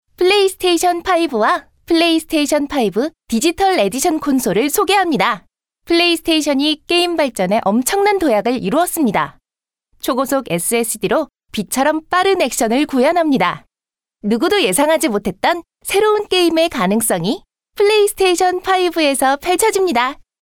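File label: Korean, female, commercial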